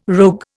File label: "rogue", Indian